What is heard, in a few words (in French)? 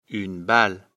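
"balle", French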